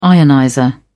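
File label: "ionizer", English, British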